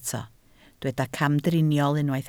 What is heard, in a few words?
"camdriniol", Welsh